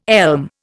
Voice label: "elm", Indian